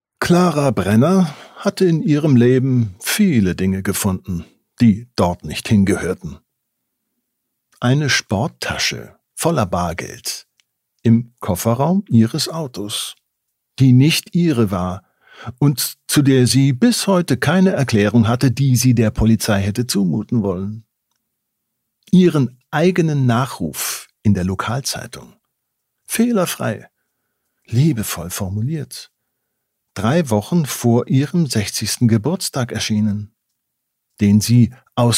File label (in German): Hoerprobe, sprecher